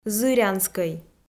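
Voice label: "зырянскӧй", Komi, female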